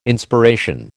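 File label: "Inspiration", American English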